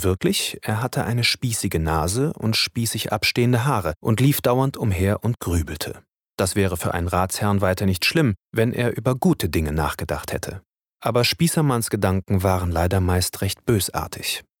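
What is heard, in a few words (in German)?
Erzählung König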